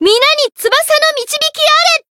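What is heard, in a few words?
Voice limitbreak Japanese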